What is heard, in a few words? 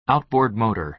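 "outboard motor", American English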